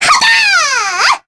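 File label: Vox Casting, Korean; Sonia; kings raid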